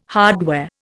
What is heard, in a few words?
"hardware", Indian